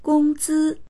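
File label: "gong1zi1", Mandarin Chinese; 工资; 賃金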